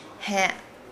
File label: "four", Nukuoro